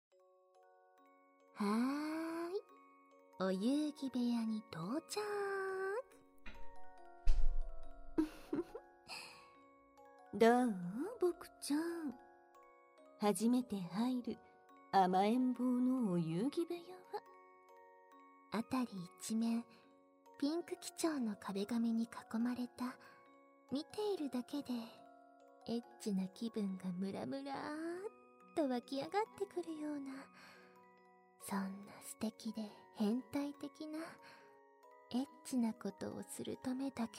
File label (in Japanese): キス&両耳舐め手コキ, ASMR; 初来園ver